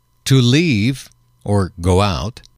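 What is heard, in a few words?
to leave go out, male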